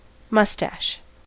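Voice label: "mustache", US English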